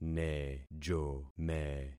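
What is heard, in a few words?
"nejome", concatenated syllables; Affixing experiment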